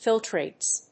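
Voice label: "filtrates", English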